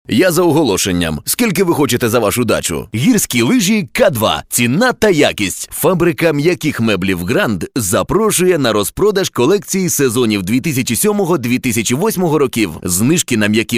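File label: Ukrainian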